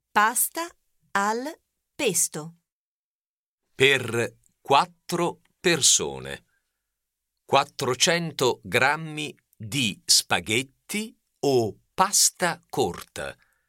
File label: Slow, Cuisine, Italian